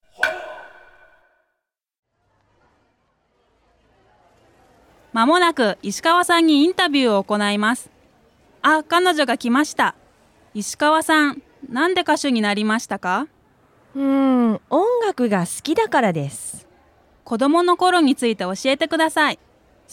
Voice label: dialog; JapanesePod101; Beginner Season 1